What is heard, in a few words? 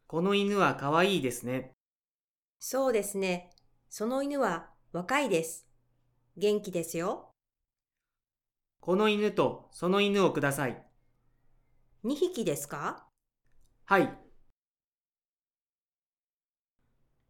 Conversation w SFX